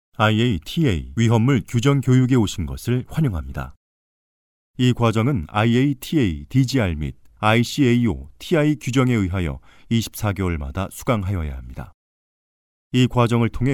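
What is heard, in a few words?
Korean, male, narration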